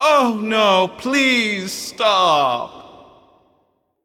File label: Male Void Elf Emote; Fight Over Me